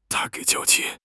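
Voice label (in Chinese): "娇气", Chinese; HSK6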